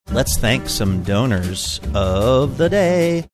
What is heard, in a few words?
saying let's thank some donors of day sing songy